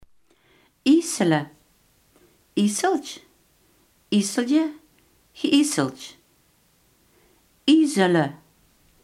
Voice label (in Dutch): "ijzelen", Limburgs